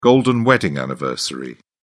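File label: "golden wedding anniversary", British English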